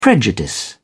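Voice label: "prejudice", English, British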